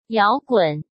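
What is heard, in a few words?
"摇滚", Mandarin Chinese; hsk; chinese-flashcards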